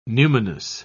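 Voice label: "numinous", English, British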